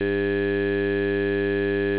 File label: male E